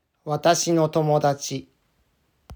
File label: Watashi no Tomodachi Pronunciation, Japanese